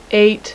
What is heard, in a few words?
5 female; numbers